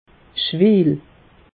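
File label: "schweel", Alsatian; meteo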